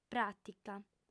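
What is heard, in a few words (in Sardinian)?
"pràtica", female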